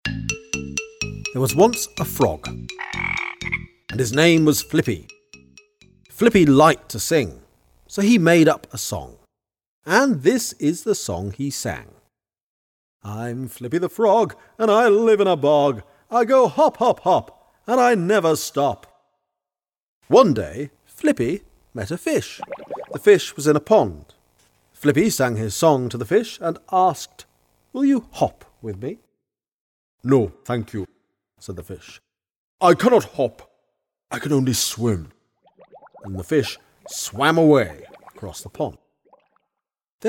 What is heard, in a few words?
Childrens Narration; Flippy the Frog